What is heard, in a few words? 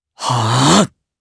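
Vox Casting, Japanese; Lucias; kingsraid; jp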